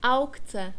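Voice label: "aukce", Czech, male; diphthongs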